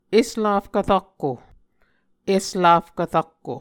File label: "eslafkv rakko", Muscogee